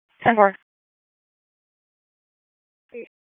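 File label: Police Northeast District Dispatch, p25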